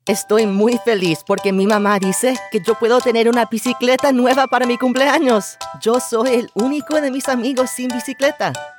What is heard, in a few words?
Youthful Child Excited Enthusiastic Birthday Boy Commercial; male voiceover; Bicycle